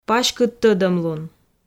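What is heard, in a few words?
"паськыд тӧдӧмлун", Komi, female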